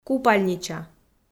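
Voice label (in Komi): "купальнича", Komi-Zyrian, female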